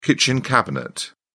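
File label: "kitchen cabinet", British English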